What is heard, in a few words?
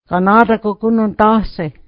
did you come from town, mohawk